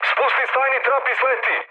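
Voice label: Serbian bailout mood med, speech; War Thunder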